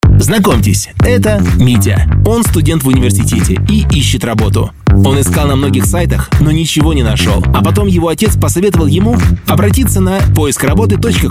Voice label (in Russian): RUS; Russian